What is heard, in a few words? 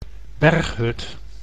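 "berghut", Dutch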